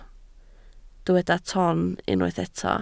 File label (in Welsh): "ton" Welsh